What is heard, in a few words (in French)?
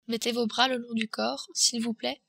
mettez bras le long, french